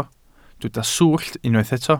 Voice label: "swllt", welsh

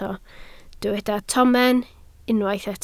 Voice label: "tomen", Welsh

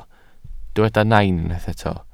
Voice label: "nain", Welsh

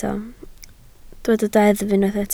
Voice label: "deddf", Welsh

